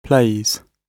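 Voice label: "plays", British English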